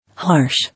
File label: "Harsh", English